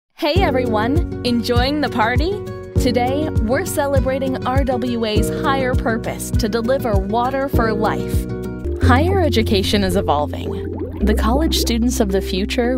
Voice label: english american corporate videos